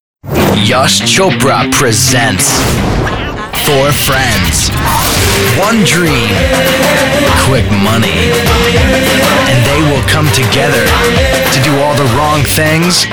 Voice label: Promo; bad mash trailer bollywood